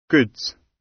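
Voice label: "goods" British; Business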